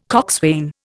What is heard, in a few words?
"coxswain", Indian